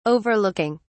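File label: "overlooking", British English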